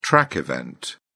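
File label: "track event", British English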